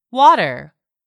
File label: "water", English word; 3000 words